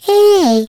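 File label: Turret pickup, French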